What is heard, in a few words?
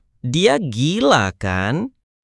indonesia, negative phrases